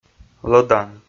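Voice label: "лӧдан", Komi, male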